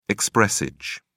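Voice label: "expressage", British English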